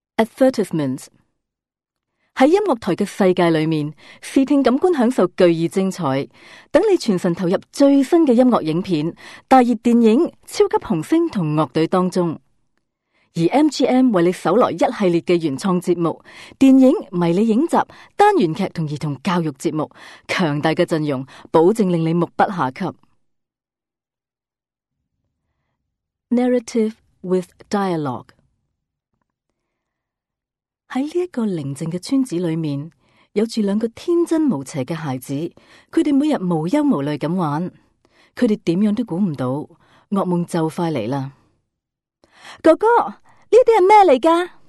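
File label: Cantonese, female; voice over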